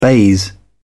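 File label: "baize", British English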